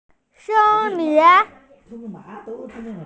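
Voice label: "sen1lin2", Mandarin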